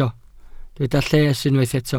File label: "lles", Welsh